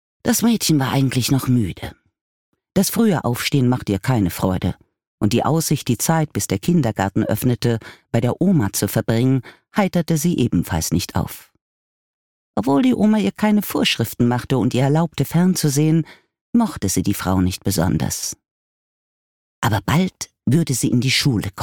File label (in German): Hoerbuch Thriller; Todmaedchen